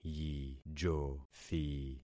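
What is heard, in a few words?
"yijothi", concatenated syllables; Affixing experiment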